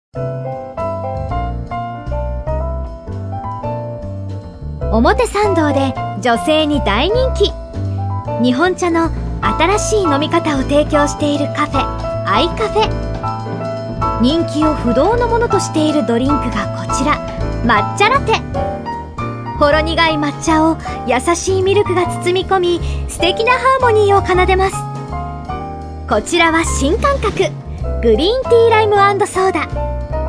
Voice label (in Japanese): ナレーション